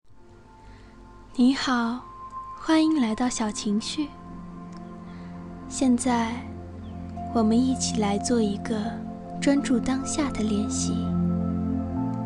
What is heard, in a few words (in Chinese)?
冥想女播